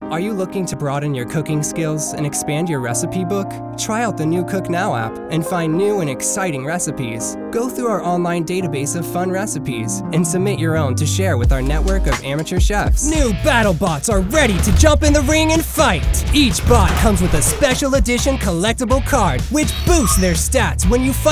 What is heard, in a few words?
commercial